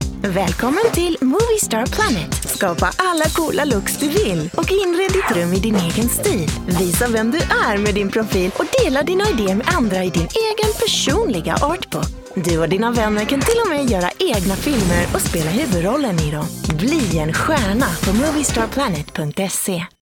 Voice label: Swedish commercial